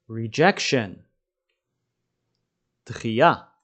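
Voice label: "rejection" English, "דחייה" Hebrew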